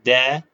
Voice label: short voyels arabic alphabet